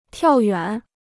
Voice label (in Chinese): "跳远", Chinese